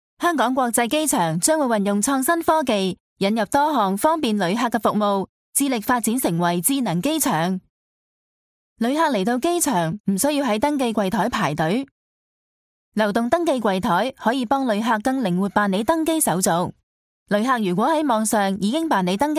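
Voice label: hong kong cantonese female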